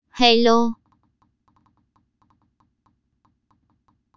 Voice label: Giọng con gái nói "hello"

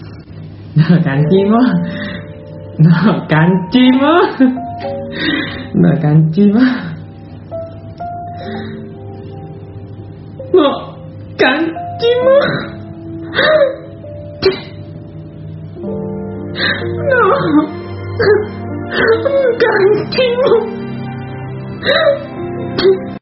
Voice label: cau noi gwenchana tieng nghe an

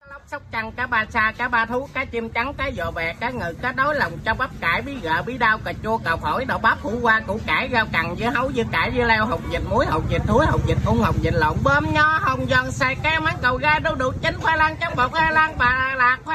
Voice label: Tieng rao ban hang rong hay

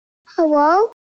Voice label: am thanh thong bao em be noi hello

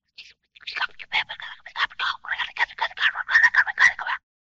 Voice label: Tieng noi chuyen thi tham

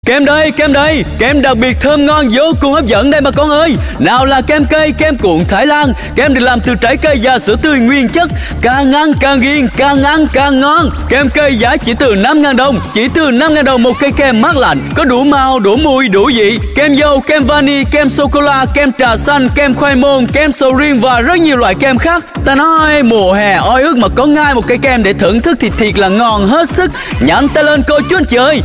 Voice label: Tieng rao ban kem

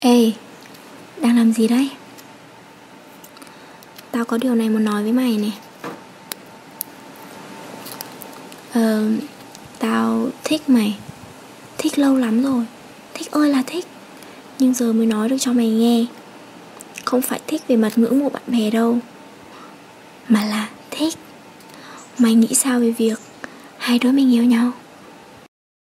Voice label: Tieng con gai noi giong de thuong

